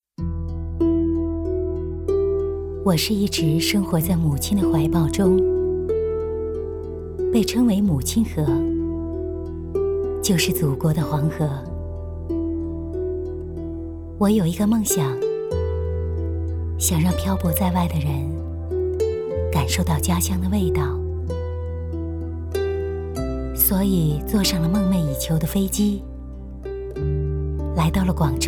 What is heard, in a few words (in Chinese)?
旁白; 有梦想的鱼